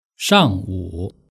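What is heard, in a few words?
"shangwu" Mandarin Chinese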